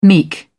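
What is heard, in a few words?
"meek", English, British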